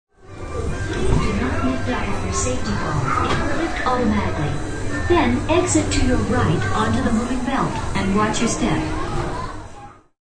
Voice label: Ride Safety, Recorded announcement on how to safely exit a rollercoaster ride.; Games